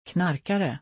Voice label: "Knarkare", Swedish

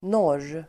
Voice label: "norr", Swedish

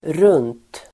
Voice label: "runt", Swedish

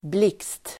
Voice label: "blixt", Swedish

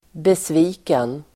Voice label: "besviken", Swedish

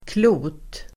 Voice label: "klot", Swedish

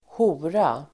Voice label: "hora", Swedish

